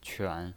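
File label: "quan" third tone, pinyin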